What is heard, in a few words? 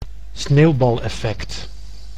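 "sneeuwbaleffect", Dutch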